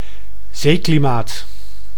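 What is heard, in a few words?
"zeeklimaat", Dutch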